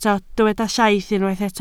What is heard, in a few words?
"llaith", Welsh